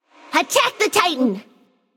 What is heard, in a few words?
vox attack m; smite; cupid